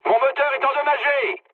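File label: French damaged engine mood med; War Thunder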